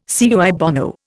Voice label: "cui bono", Indian